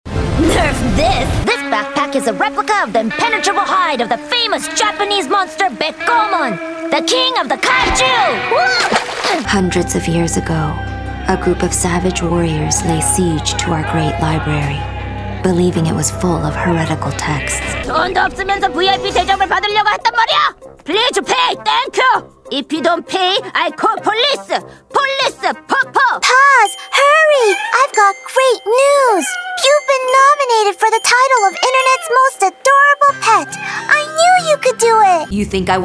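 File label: animation female, Voice Over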